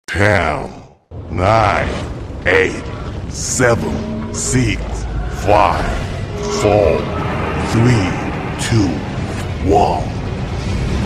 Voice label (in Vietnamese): Dem nguoc dong ho Tieng Anh